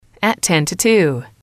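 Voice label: "at ten to two", English, female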